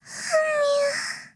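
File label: LOW MOOD, Japanese; bonee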